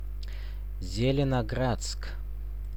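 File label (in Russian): "Zelenogradsk" Russian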